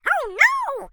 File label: cartoon character high pitched voice says oh no